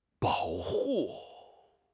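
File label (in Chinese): "bao3 hu4", Chinese; HSK; HSK4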